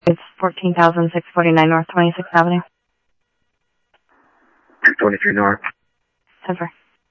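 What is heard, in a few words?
Phoenix police department, scanner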